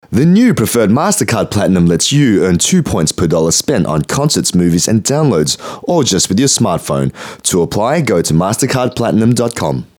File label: Clean Radio Voiceover